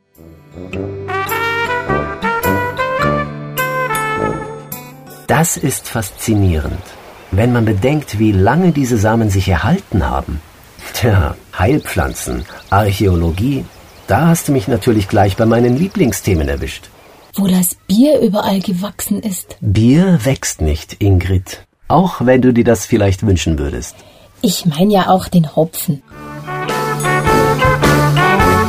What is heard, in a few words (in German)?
audioguide; hopfenmuseum